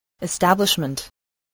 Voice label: "establishment", voice, english